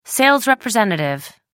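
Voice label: "sales representative", British English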